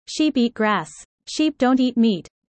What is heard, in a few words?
user fast